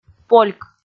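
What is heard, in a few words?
"польк", Komi, female; kpv audio dict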